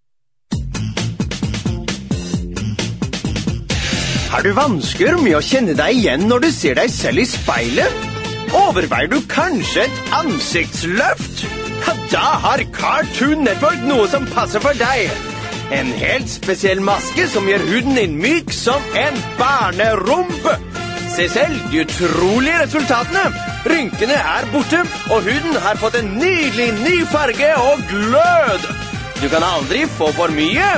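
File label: norwegian male voice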